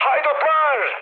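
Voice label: Turkish enemy detected, mood med, speech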